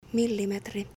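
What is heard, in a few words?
Finnish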